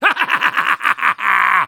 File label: Soldier tank warcry